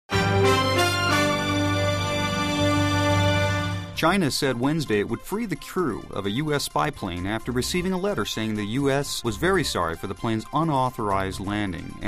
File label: Tv Male News Report